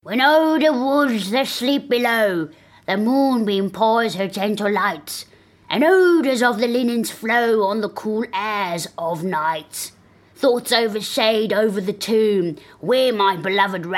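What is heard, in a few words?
English South African female character; accent of south africa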